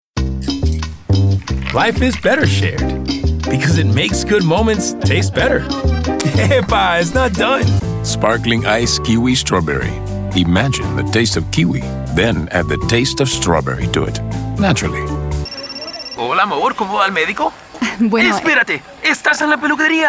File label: languages spanish accent